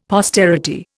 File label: "posterity", Indian; Dictionary